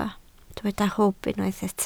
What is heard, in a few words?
"hwb", Welsh